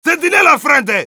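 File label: sentry ahead, Spanish; Heavy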